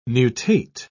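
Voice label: "nutate", English, British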